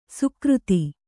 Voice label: "ಸುಕ್ರುತ", Kannada

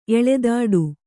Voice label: "ಎಳೆದಾಡು", Kannada

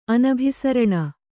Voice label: "ಅನಭಿಸರಣ", Kannada; anabhisaraṇa; noun; non-diffusion.

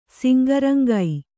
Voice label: "ಸಿಂಗರಂಗೈ", Kannada

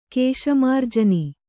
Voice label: "ಕೇಶಮಾರ್ಜನ", Kannada

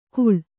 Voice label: "ಕುಳ್", Kannada

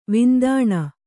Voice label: "ವಿಂದಾಣ", Kannada

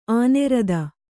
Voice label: "ಆನೆರದ", kannada

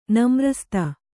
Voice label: "ನಮ್ರಸ್ತೆ", Kannada

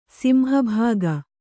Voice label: "ಸಿಂಹಭಾಗ", kannada

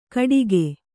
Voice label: "ಘಟಿಗೆ", Kannada

